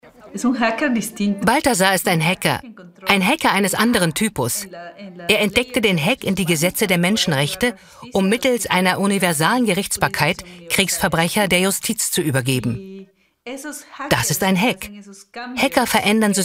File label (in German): VOICE OVER TV Doku; Der Fall Assange Renata Avila